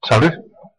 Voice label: goodbye, west flemish